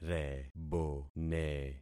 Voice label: "rebone", concat syllables; Affixing experiment